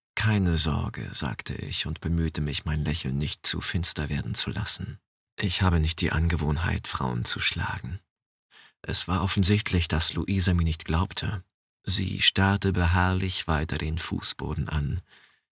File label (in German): Erotik, Audiobook (Hörbuch), German